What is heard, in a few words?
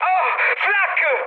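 Italian damaged aa mood high, speech; War Thunder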